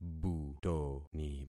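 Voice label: "butoni", concatenated syllables; Affixing experiment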